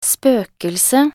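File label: "spøkelse", Norwegian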